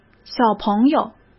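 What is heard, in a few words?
"小朋友", Mandarin Chinese; hsk; chinese-flashcards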